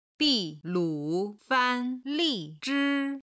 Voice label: "bi4 lu3 fan1 li4 zhi1", chinese